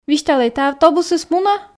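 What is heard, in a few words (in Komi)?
"висьталӧй тайӧ автобусыс мунӧ", Komi, female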